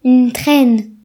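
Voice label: "train", Breton; gcompris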